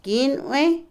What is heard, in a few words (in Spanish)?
Otomí, mujer; mosca; Animales; Vocabulary; INALI